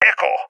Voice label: code echo, balkan; vo; balkan epic